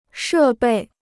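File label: "设备", Chinese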